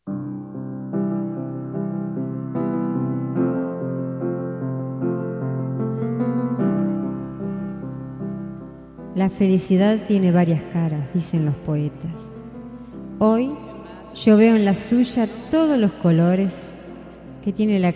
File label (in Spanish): Papa Feminino, Espanhol; Variadas em Espanhol